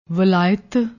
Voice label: "walait", Punjabi; ਵਲਾਇਤ